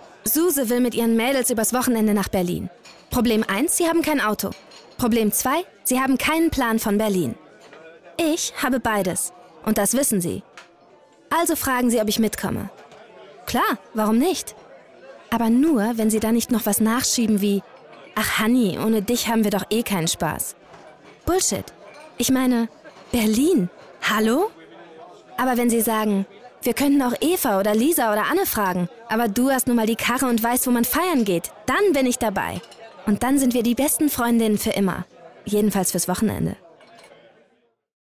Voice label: testimonial rumkriegen

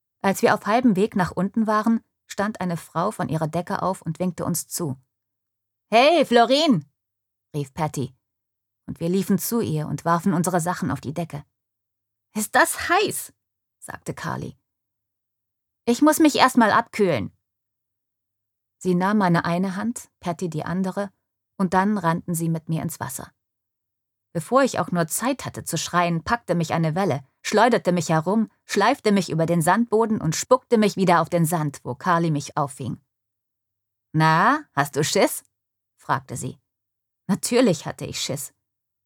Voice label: Hoerbuch Unterhaltung

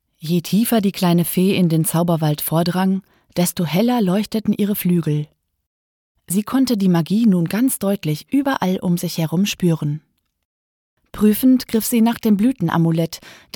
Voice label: Kinderhörbuch, Audiobook (Hörbuch), Tale (Erzählung), German; Feenzauber

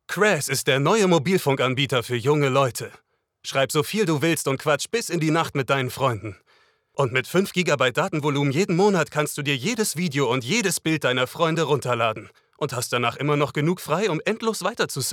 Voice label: werbung mobilfunk

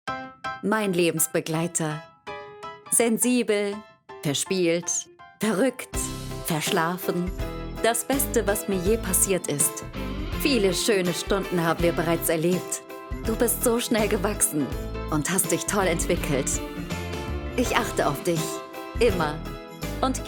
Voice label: Werbung; ZOOROYAL

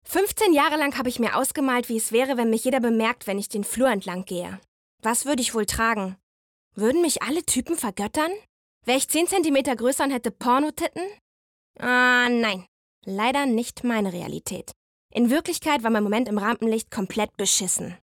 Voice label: Monolog

